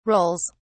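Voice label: "rolls", English, British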